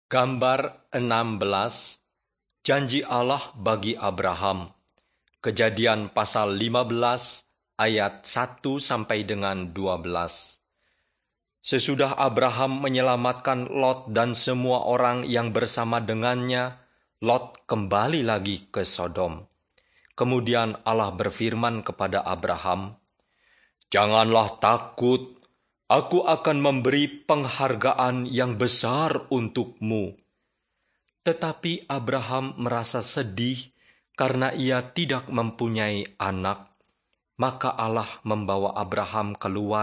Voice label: bahasa indonesia; beginning with god